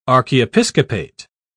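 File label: "archiepiscopate", English, British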